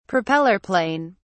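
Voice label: "propeller plane", British English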